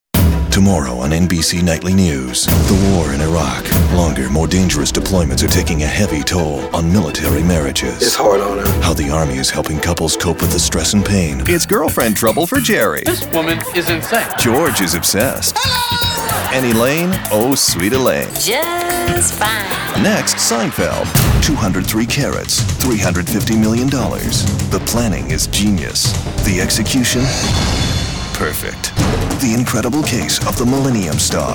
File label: promo, male voice talent